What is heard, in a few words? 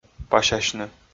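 "пасясьны", Komi, male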